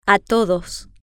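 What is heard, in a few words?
Spanish, to everyone, female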